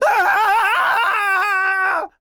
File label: Enemy Generic Human Spawn Disbelief